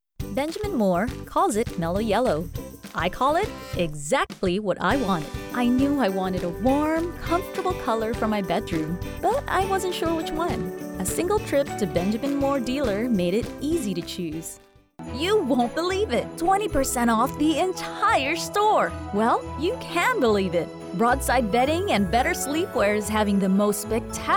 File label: foreigner